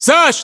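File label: "sasha", battlechatter, Russian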